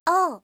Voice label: "o", alphabet